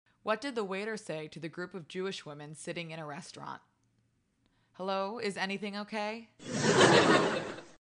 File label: joke recording laugh